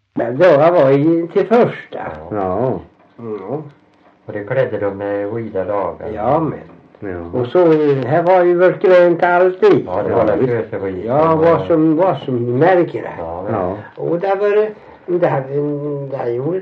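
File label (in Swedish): Värö Halland, Svenska sagor dialekter; Folkminnen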